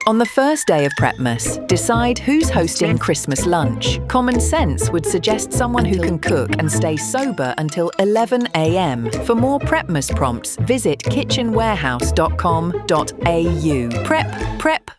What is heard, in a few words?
Radio